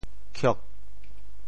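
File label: "khiok4", Teochew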